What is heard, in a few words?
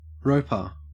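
"roper", Australian English; pronunciations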